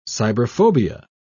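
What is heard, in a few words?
"cyberphobia", British English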